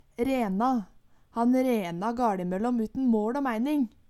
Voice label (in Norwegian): rena, Numedalsmål